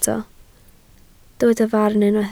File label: "farn", Welsh